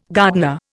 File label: "gardener", Indian